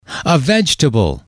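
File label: "a vegetable", English, male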